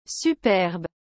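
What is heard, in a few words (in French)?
"superbe", French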